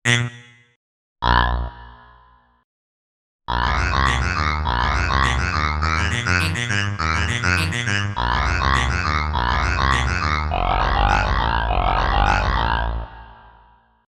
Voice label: Sawtooth Speech Reverb

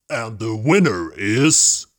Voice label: "And The Winner Is", Deep Voice

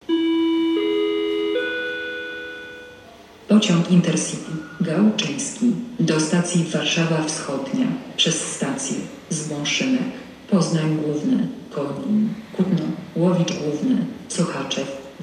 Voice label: Train Station Announcement

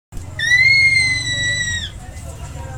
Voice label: Girl Shouting Far

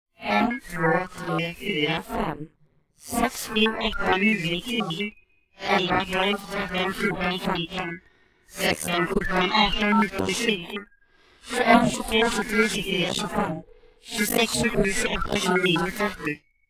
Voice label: Female Robot

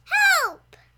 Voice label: "Help", Child Saying

